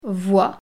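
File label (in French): "voie", French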